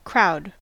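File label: "crowd", US English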